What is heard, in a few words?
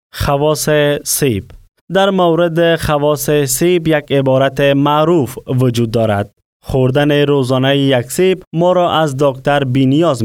Male Dari Language